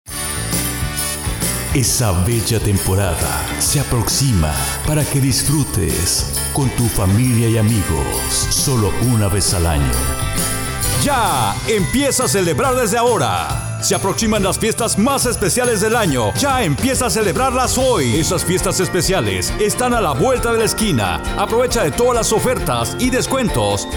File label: Male